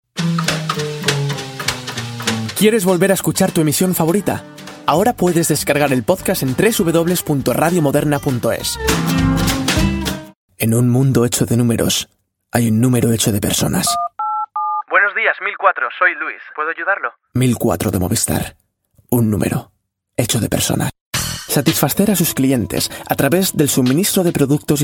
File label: Spanish